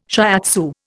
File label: "shiatsu", Indian